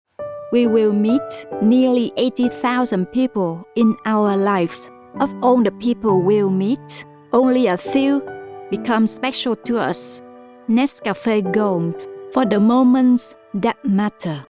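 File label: Vietnamese, female, English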